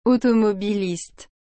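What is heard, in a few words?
"automobiliste", French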